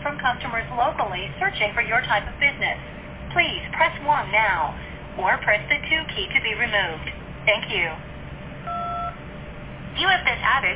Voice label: voicemail google placement scam